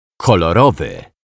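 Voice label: "kolorowy", Polish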